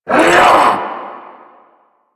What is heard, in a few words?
Vocal Attack Short